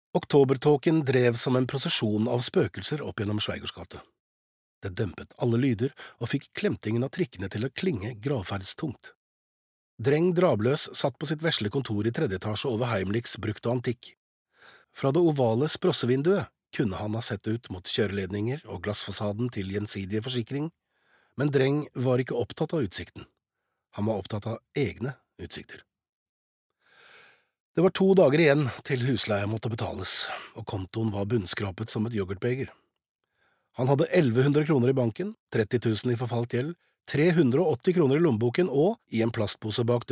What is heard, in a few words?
no lydbok, norwegian, male